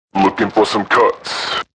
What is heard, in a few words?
Lookin 4 Cuts, Radio, Male